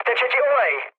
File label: damaged oil, speech cze, mood low; War Thunder